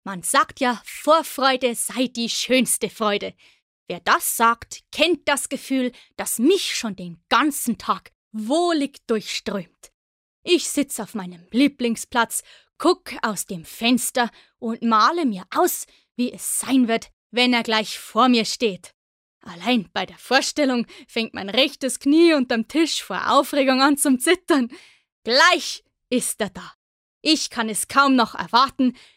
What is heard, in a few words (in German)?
Bayerisch Freundlich